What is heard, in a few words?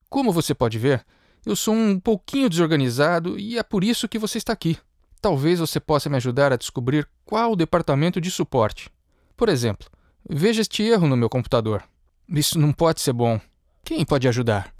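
Brazilian Portuguese dialogue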